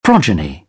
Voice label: "progeny", British; ɑː - ɒ; English

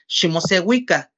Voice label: "siéntense", "ximoseuika", "seat"

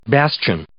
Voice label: "bastion", English

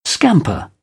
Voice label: "scamper", British; ɚ - əɹ; English

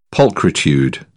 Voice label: "pulchritude", British English; t - ʧ

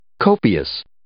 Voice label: "copious", English

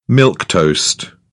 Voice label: "milquetoast", British; English

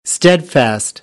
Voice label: "steadfast", American, English; æ - ɑ

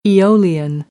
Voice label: "eolian", American; English; oʊ - əʊ

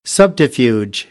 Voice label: "subterfuge", American, English

